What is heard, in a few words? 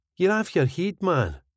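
Yer aff yer heid man, Scottish English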